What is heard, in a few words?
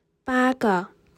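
"八个", Chinese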